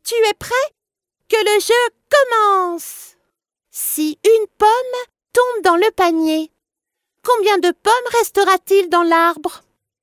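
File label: French female children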